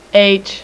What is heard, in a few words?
"h" female, alphabet; Web Clip Empire 150,000